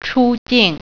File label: "departure", Mandarin